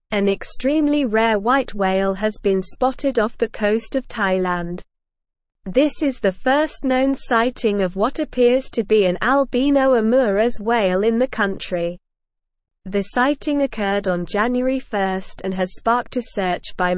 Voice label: English Newsroom Advanced SLOW Reading; Rare Albino Omuras Whale Sighted off Thailand Coast